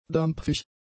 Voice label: "dampfig", German; adjectives

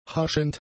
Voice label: "haschend", German; adjectives

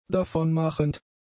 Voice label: "davonmachend", German; adjectives

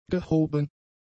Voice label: "gehoben", German; adjectives

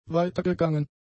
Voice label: "weitergegangen", German; adjectives

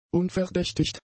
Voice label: "unverdächtigt", German; adjectives

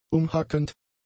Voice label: "umhackend", German; adjectives

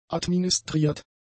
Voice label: "administriert", german; adjectives

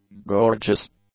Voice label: "gorgeous", english; adjectives

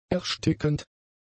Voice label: "erstickend", German; adjectives

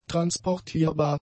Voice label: "transportierbar", German; adjectives

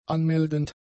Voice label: "anmeldend", German; adjectives

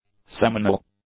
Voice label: "seminal", English; adjectives

